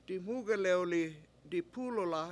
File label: "diMUUgalewli dipUlula7" I circle the car, Washo